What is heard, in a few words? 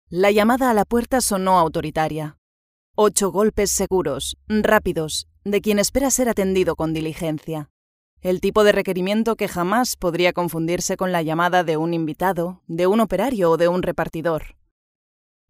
Spanish audiobooks